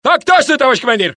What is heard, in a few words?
Russian; mohaa